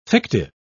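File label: "fictive", English, British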